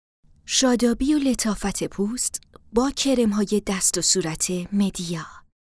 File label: farsi female